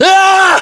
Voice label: melee attack, voiceovers english